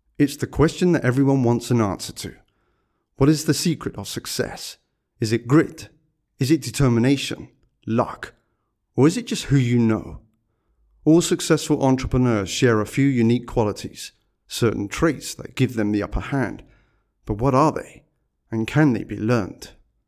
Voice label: Narration Entrepreneur VO only, Upbeat Promo Intro Entrepreneur